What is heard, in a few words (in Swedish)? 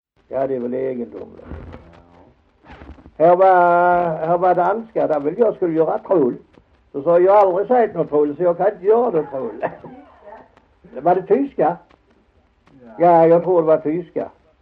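Hasslöv Halland, dialekter svenska sagor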